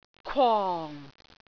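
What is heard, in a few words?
"kwong4", Cantonese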